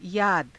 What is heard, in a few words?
"yaada", hindi; याद; [女]記憶